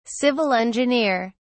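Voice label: "civil engineer", British English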